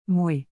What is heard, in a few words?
"moé", Québécois French; moé moi me